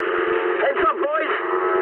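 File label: English near waypoint mood medium; War Thunder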